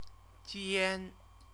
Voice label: "jian", Chinese; CTCFL; University of Oxford

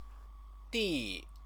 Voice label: "di", Chinese; 第; [ordinal prefix]

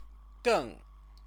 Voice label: "geng", Chinese; 更; even (more /less); adverb; Chinese lessons

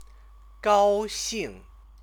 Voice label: "gaoxing", Chinese; 高 兴; happy; stative verb

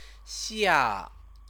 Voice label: "xia", Chinese; Chinese lessons

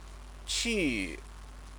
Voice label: "qu", Chinese

